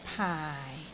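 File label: "paai4", Cantonese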